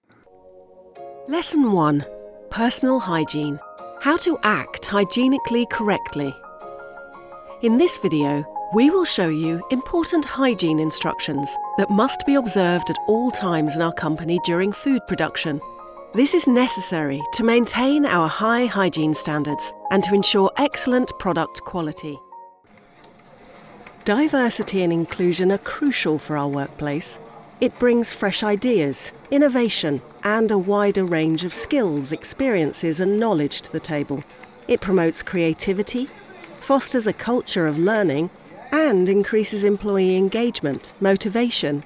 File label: ELearning